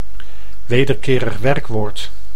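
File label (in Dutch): "wederkerig werkwoord", Dutch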